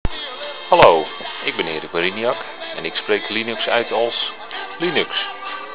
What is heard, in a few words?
dutch; linux